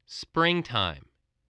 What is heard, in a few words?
"springtime", voice